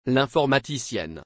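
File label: "L'informaticienne", French; مهندس کامپیوتر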